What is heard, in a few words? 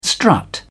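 "strut", British English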